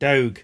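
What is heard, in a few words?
"dowg", Cromarty Fishertoun dialect; [dowhg]; a dog; Word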